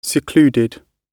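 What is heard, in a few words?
"secluded", British English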